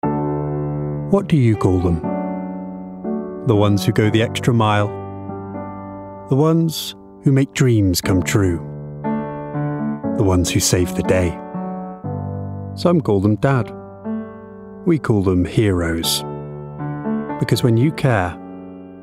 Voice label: COMMERCIAL; Dove Men